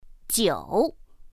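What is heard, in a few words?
"jiǔ", Mandarin Chinese pinyin; pinyin2; pinyinji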